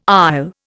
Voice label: "isle", Indian

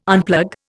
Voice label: "unplug", Indian

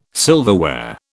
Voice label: "silverware", British

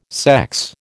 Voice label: "sex", American

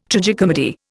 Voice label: "tragicomedy", Indian

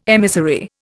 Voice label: "emissary", Indian

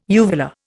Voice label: "uvular", Indian

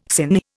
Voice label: "sinh", Indian

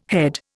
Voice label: "head", Indian

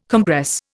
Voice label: "compress", Indian

